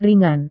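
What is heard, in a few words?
"ringan", Indonesian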